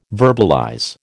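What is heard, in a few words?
"verbalize", American English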